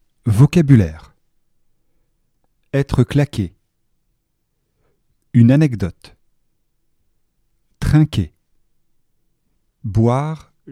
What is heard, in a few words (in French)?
vocabulaire dialogue